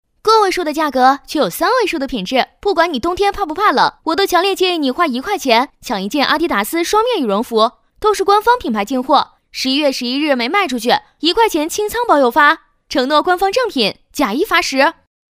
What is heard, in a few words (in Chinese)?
女, 抖音带货, 信息流